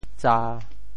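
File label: "tsa1", Teochew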